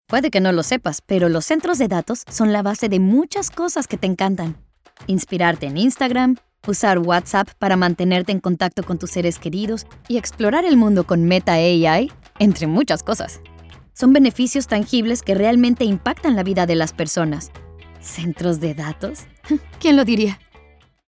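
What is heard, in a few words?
Spanish Voice Over, eLearning; Conversational Technology; Financial Institutions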